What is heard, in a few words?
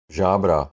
"Zabra", Czech